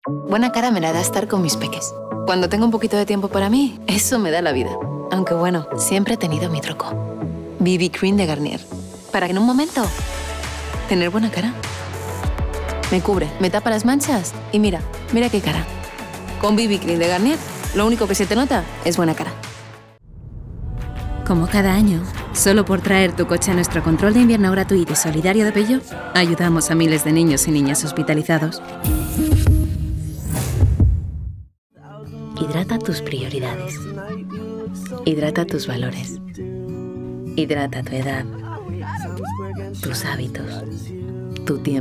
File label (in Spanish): locución